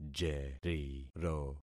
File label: "jeriro", concat syllables; Affixing experiment